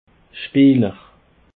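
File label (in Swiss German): "speeler", Alsatian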